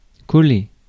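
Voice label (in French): "coller", French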